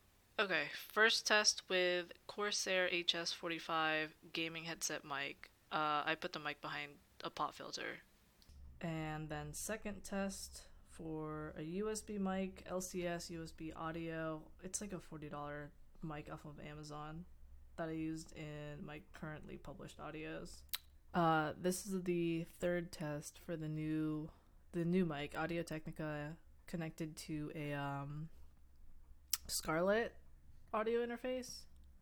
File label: Mic Tests; 3 mics